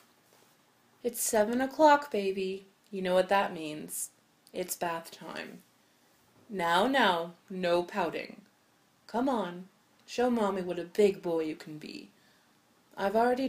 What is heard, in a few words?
[F4M] [Sweet]; Baby Boy; No Age; Bath; Jacking off my good boy; It's Bath Time, Baby; Mommy